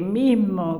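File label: "mismo", Spanish, La Plata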